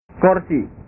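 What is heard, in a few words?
"korsi", Indonesian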